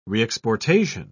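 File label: "reexportation", English, uk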